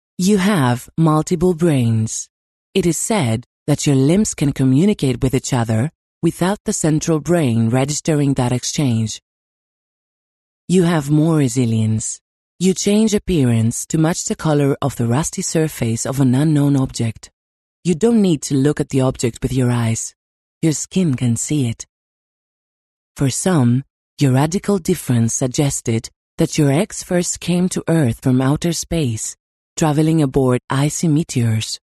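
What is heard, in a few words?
Greek Female English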